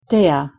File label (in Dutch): "thea", Latijns; thee-in-andere-talen